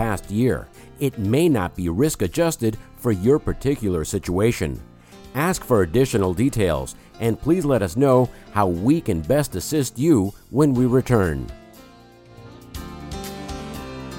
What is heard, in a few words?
Financial Services On Hold Message